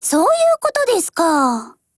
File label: ACCEPT JP